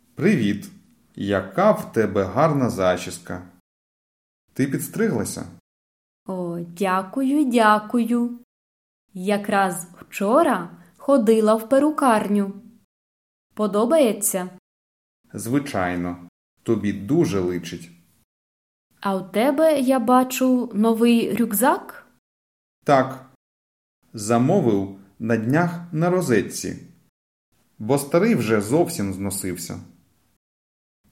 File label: Dialogue Slow